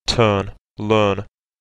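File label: turn learn, British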